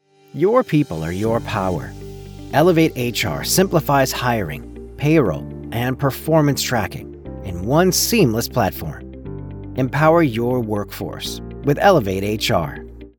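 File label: with music; Elevate HR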